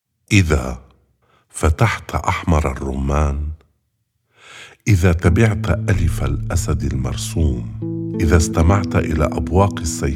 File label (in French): arabe